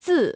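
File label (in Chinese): "字" Chinese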